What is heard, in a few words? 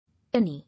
"Any", English